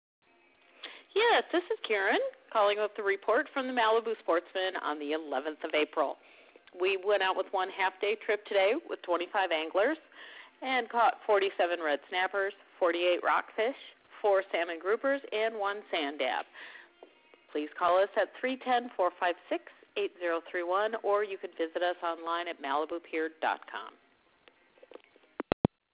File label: voice message